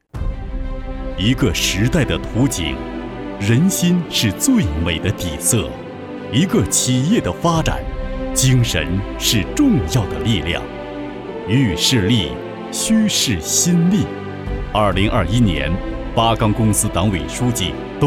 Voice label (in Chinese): 男 宣传片 党建; 八钢公司